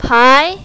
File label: "pai2", mandarin, female